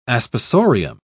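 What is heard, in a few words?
"aspersorium", English, British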